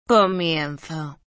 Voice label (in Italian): Spagnolo